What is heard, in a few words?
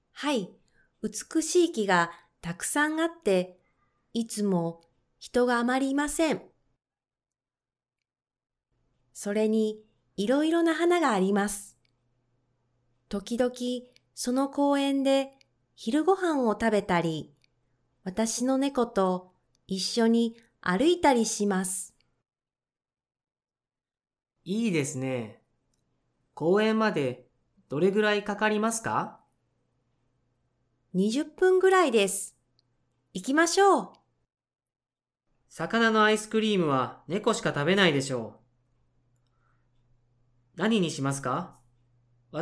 Conversation w SFX